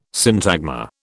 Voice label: "syntagma", British